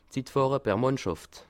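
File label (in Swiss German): "mannschaft", Bas Rhin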